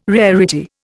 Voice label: "rarity", Indian

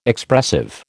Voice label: "Expressive", American

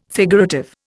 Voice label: "figurative", Indian

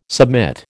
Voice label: "submit", American

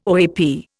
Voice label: "OAP", Indian

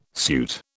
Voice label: "suit", British

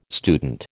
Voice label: "student", American

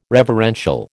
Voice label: "reverential", American

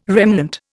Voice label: "remnant", Indian

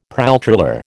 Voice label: "pralltriller", American

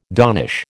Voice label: "donnish", American

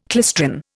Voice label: "klystron", Indian

